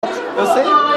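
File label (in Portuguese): "foda", Portuguese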